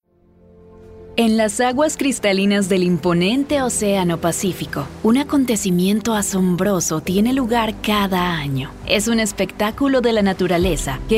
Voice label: Spanish Narration Showcase